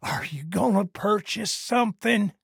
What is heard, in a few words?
trader male neutral greeting